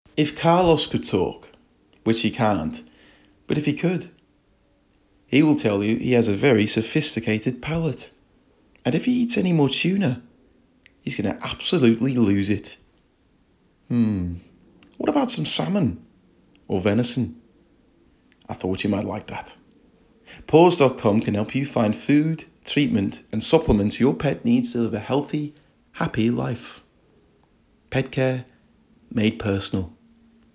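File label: Liverpool, male, English neutral, Warm, Friendly, Current, Relatable, Natural, Engaging, Empathetic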